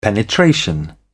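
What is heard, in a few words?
"penetration", English, British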